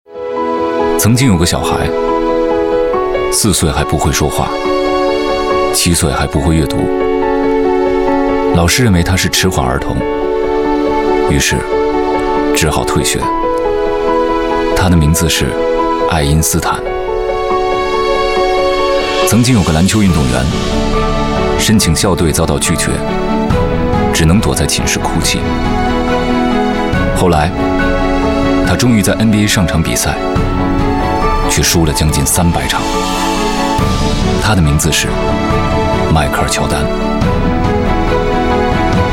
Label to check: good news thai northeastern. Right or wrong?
Wrong